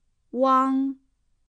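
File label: "uang", Chinese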